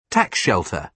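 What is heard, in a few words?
"tax shelter", British English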